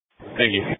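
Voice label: Emergency Medical Services Dispatch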